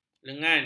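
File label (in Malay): "lengan", Malay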